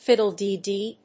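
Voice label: "fiddle-de-dee", English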